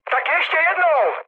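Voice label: bomb failure, Czech, mood med; War Thunder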